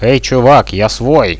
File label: friendly fire, Russian